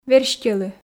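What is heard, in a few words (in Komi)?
"верстьӧлы", Komi, female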